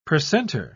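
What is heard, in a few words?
"precentor", British English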